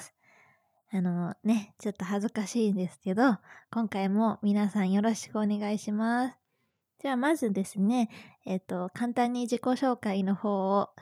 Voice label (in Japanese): 自己紹介, ASMR